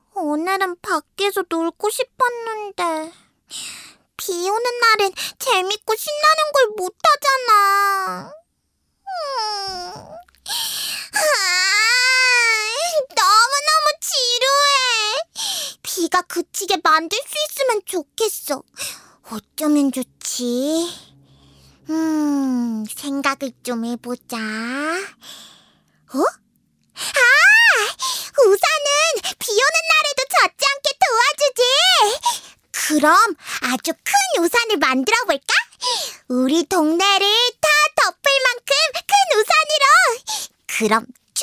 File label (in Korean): 귀여운 여아 샘플